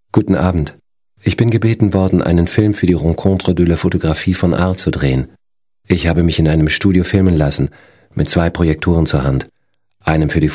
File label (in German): Kommentar Neutral